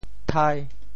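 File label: "thai5", Teochew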